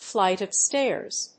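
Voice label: "flight of stairs", English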